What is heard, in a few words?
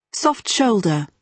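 "soft shoulder", British English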